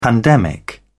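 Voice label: "pandemic", British English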